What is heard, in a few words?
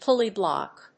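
"pulley block", English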